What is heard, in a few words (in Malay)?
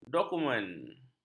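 "dokumen", Malay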